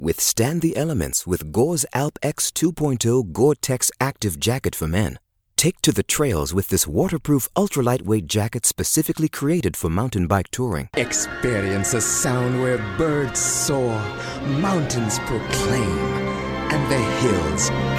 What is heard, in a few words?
british accent